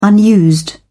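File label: "unused", English, British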